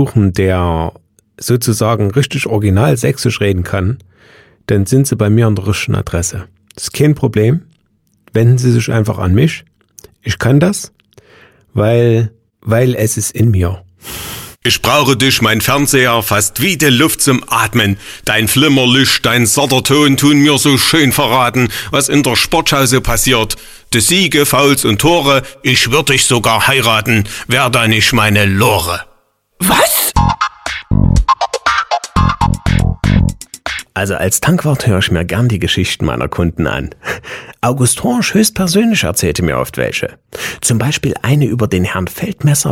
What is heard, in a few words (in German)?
DEUTSCH MALE Dialekte Diverse Dialekt saechsisch